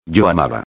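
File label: "amaba", Spanish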